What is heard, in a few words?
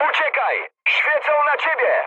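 searchlite, mood high, Polish speech; War Thunder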